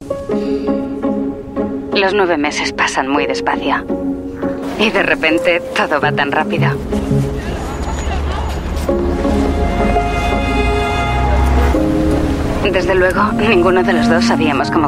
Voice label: Spanish European Radio; Spanish European Female; UBER